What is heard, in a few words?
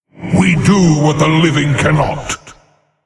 Male Human Play